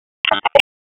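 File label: Raleigh Police Northeast District Tactical; wake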